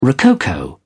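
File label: "rococo", British English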